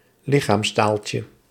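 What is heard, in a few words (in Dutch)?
"lichaamstaaltje", Dutch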